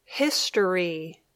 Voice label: "history", English